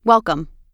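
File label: "welcome", US English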